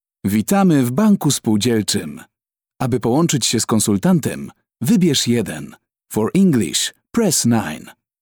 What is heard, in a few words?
male polish ivr